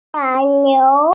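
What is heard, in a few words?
"nai3 niu2", Mandarin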